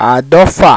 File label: "Aadofa", Yoruba